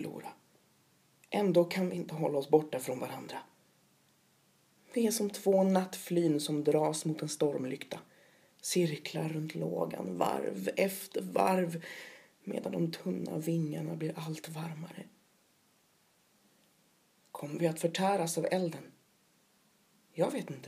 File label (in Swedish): Älskade vanlig dialekt